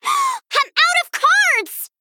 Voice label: Female Gnome No Cards

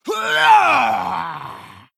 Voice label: Orc Female Attack

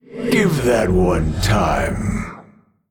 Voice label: Male Old God Emote ERROR SUMMON SICKNESS

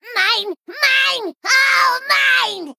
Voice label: Female Sprite Play

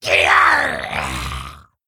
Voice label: Male Kobold Death

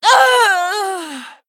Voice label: Female Satyr Death

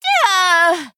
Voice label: Female Pandaren Death

